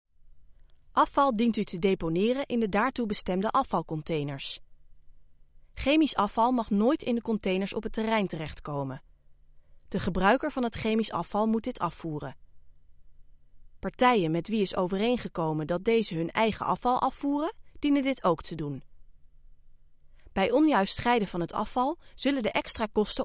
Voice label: Dutch F Narration; voice over

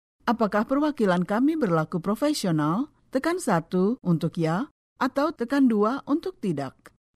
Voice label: Indonesian Bahasa F Corporate; voice over

